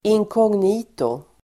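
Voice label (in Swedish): "inkognito", Swedish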